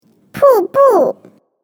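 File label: WATERFALL, Mandarin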